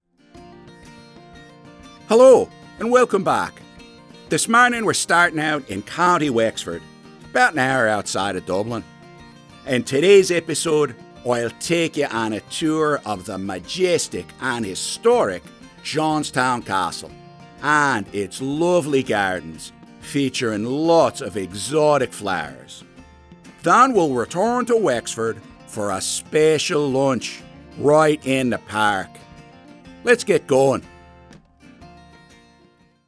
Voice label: Irish Accent; Omnivox